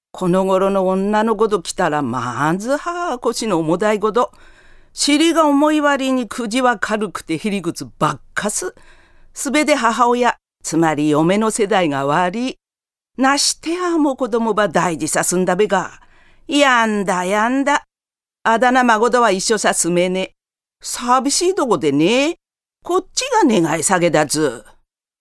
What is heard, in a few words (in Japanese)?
方言